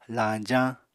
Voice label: "lañjañ", Apatani

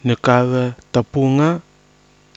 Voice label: "nɨkava tapuga", Kwamera

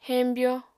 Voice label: "heñbyo", apatani

